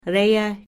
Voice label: "Regge", Pennsylvania Dutch; g, gg (middle); vowel sounds